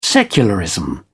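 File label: "secularism", British English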